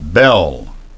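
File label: "bell", English pronunciation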